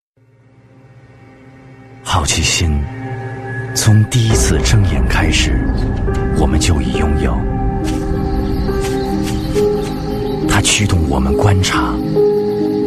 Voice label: 男声 专题 安全科技; 国语男声配音

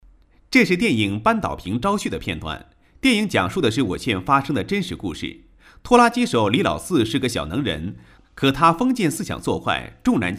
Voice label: 男 新闻, 高音, 国语男声配音; 扳倒瓶

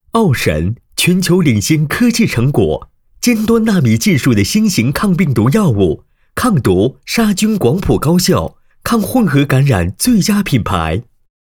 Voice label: 男 广告 抗毒药物, 年轻浑厚; 国语男声配音

